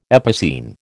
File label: "epicene", American